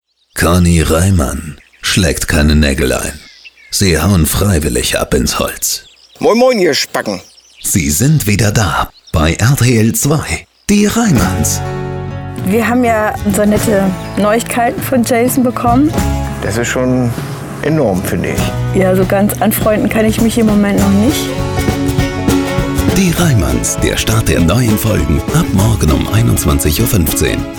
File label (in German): Radiospot